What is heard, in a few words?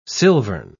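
"silvern", British English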